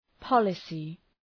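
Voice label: "policy", English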